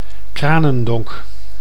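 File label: "Cranendonck", Dutch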